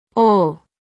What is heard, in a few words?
Phoneme Female; Commonscript; Lynguistykon